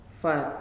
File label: "Money", Nalik